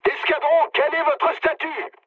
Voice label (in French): chat, speech fr